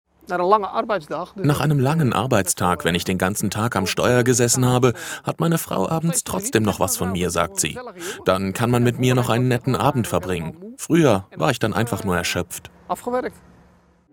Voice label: voiceover; newholland